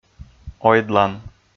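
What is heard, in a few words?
"ойдлан", Komi, male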